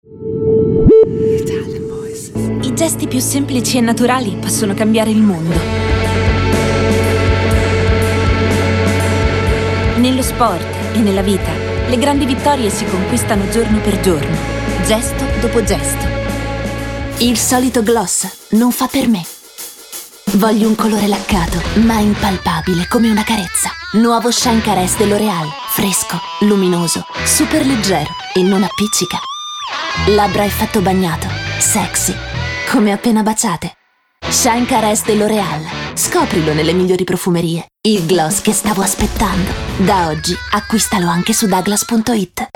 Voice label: ITALIAN VOICES Commercial, female voices

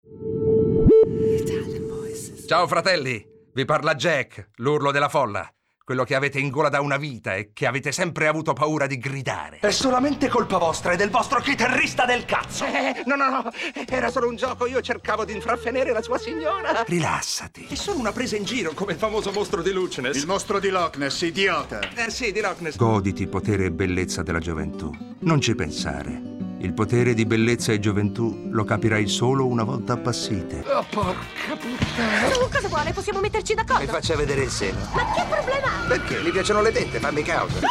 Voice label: ITALIAN VOICES Acting Dubbing, male voices

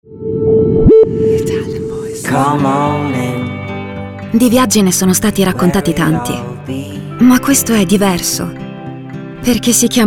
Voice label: ITALIAN VOICES Smooth Intense, female voices

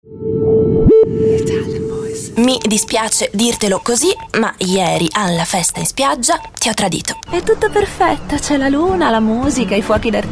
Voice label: ITALIAN VOICES Next door girl, female voices

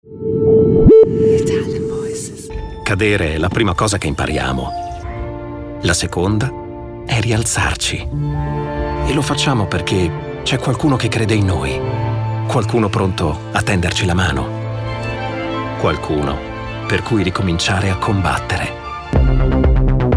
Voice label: ITALIAN VOICES Smooth commercials, male voices

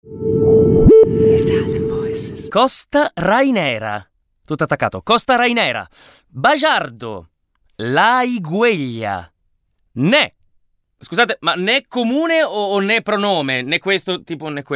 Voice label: ITALIAN VOICES Upbeat Fresh, male voices

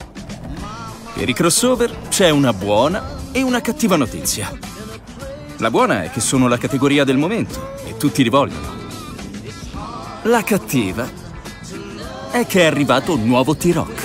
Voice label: Recent commercials, Male Voices, ITALIAN VOICES

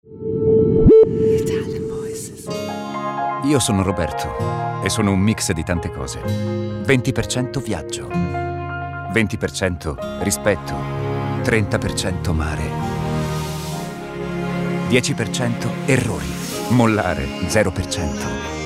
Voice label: ITALIAN VOICES Friendly Commercial, male voices

